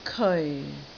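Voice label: "keoi4", Cantonese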